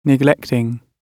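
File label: "neglecting", British English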